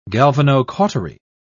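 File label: "galvanocautery", English, British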